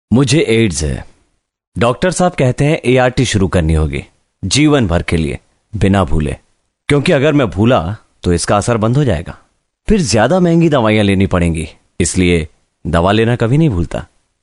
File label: Hindi Male; India